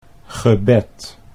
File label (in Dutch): "gebed", Dutch